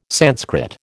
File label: "Sanskrit", American